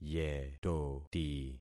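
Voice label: "yetoti", concatenated syllables; Affixing experiment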